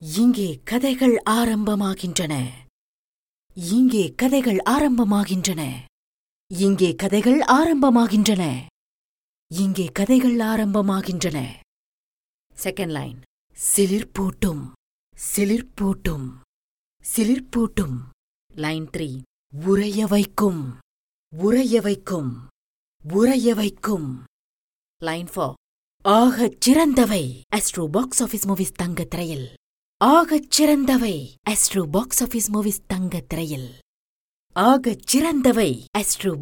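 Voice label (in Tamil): Tamil, Narration; GoLocalise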